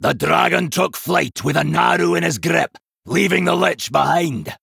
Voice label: Male Dwarf Boss Reveal General; Tavish